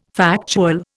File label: "factual", Indian